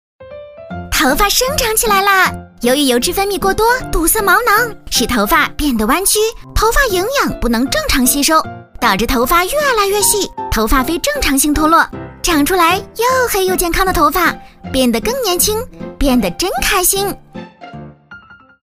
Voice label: 女-可爱欢快; 飞碟说; 护囊精华

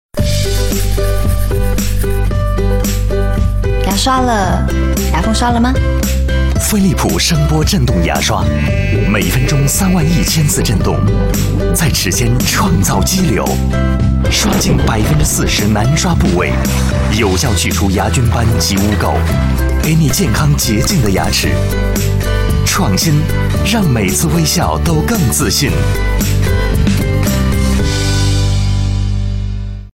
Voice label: 男 广告, 国语男声配音

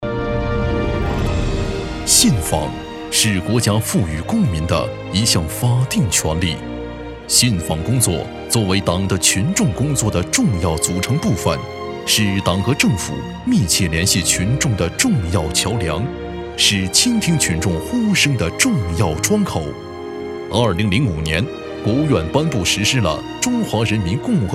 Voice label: 男, 企业专题; 国家信访

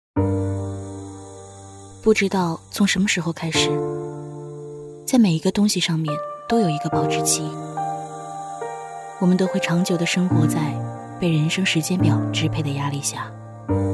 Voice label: 女 中文广告; 千金玉芳

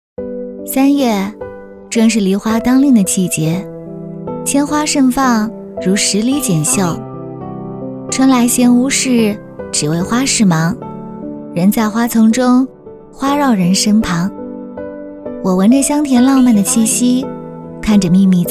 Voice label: 女 短视频旁白; 房琪风格

